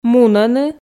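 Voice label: "мунӧны", Komi, female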